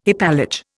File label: "hypallage", Indian